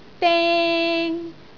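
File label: "deng1", Cantonese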